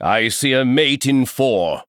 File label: White King Male Chess Piece Chess Emote Threaten